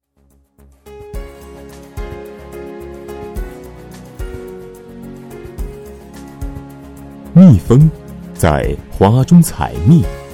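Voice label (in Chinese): 男 专题 蜜蜂 大气讲述, 企业专题 普通话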